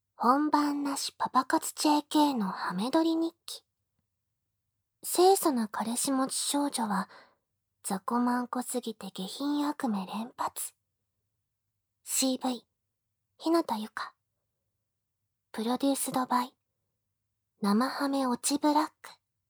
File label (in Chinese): 标题朗诵